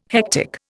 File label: "hectic", Indian